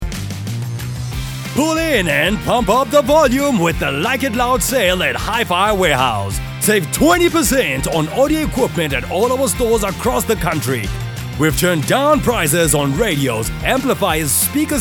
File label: voiceover